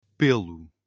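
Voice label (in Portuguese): "pelo", português europeu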